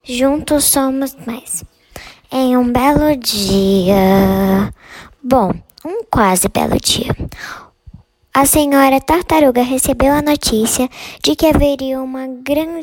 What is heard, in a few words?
AUDIOBOOK